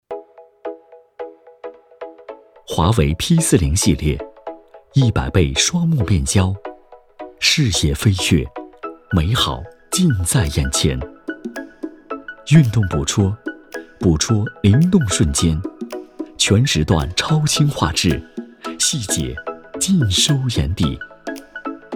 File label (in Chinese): 广告 华为P40 温暖